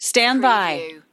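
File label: Broadcast Female Stand By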